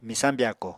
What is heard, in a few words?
"Misañ byako", apatani